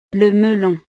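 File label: "le melon", French; frutas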